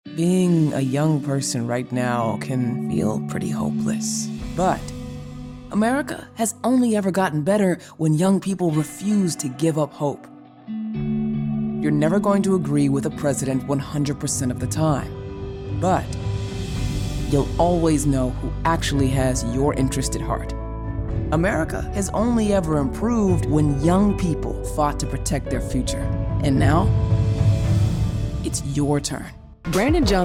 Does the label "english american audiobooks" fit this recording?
No